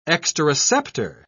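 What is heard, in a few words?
"exteroceptor", British English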